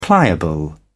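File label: "pliable", British English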